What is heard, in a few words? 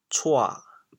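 "cua3", Teochew, chaoyang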